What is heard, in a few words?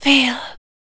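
vocal female, Dance Vocals, spoken words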